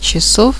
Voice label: "Hours", speak